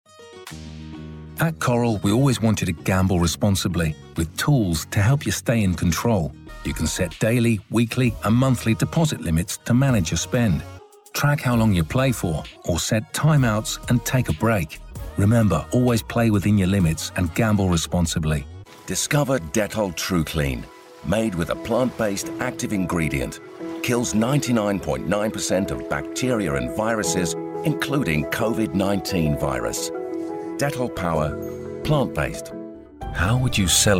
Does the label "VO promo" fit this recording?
No